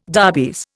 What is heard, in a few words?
"darbies", Indian